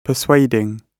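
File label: "persuading", British English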